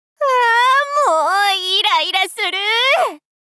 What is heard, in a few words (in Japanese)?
あ～もういらいらするぅ！大人OL×中音域